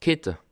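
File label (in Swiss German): "kette", Bas Rhin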